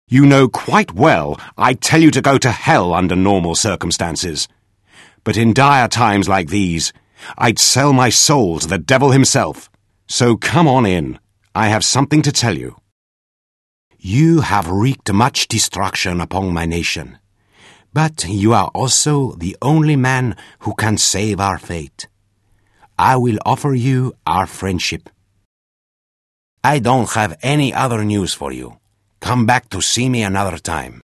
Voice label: Eng game chara, voice actors